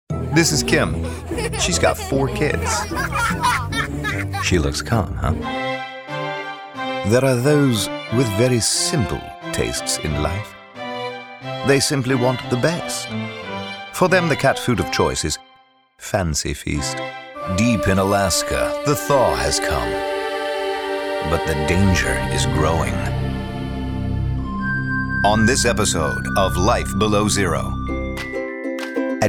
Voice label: Commercial